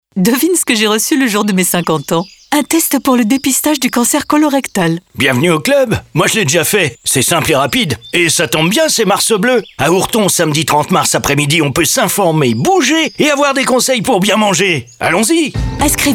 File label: radio spot